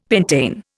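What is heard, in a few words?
"pentane", Indian English; Dictionary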